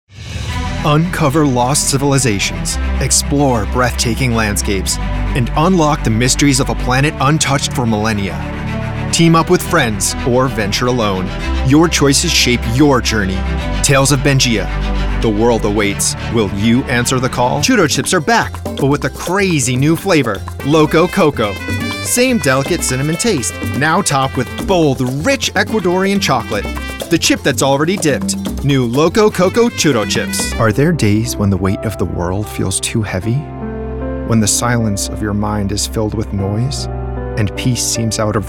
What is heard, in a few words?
Commercial